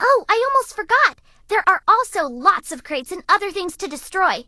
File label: English Voice